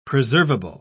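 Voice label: "preservable", British English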